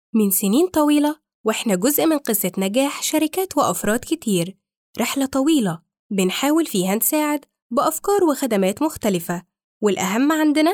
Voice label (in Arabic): اعلان; voice over